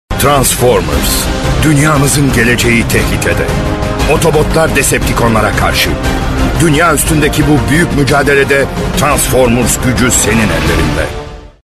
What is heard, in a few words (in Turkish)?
reklam; transformers